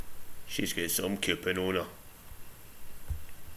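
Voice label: "coupon", Scottish slang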